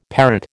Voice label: "parrot", American

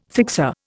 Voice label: "fixer", Indian

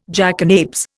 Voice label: "jackanapes", Indian

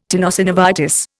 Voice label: "tenosynovitis", Indian

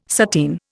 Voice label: "sateen", Indian

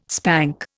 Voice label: "spank", Indian

